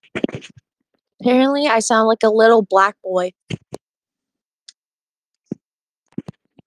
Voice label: voice message